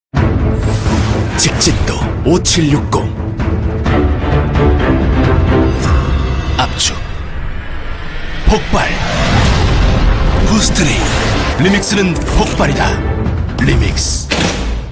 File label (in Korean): 진중한 30대; 야마하 골프